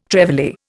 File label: "trevally", Indian